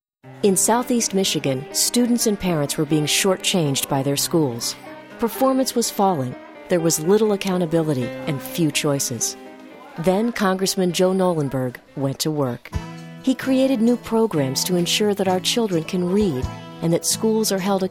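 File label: political, voice talent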